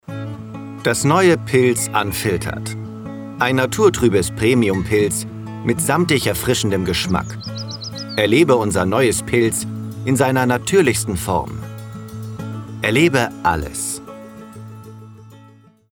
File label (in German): Werbung Bier